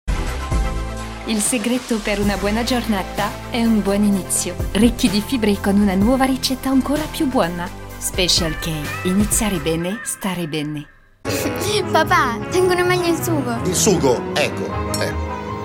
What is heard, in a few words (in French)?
voix italien